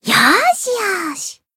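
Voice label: Voice heal, Japanese; nona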